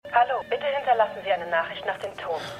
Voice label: Mailbox Stimme; The Morning Show

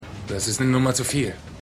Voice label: Mann; The Wire

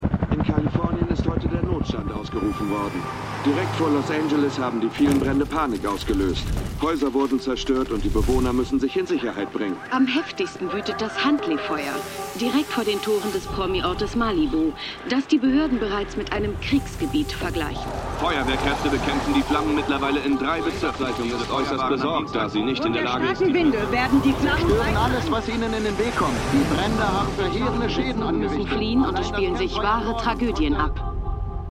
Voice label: Nachrichtensprecher Innen; THE MORNING SHOW (DF 2019)

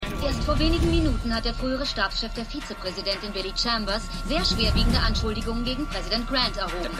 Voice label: Reporterin

